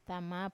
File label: "tama", father, Wamesa